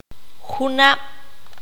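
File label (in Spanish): "el año que viene", Q'anjob'al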